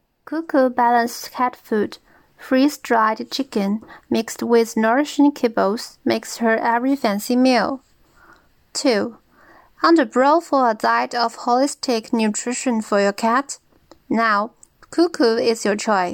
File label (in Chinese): 广告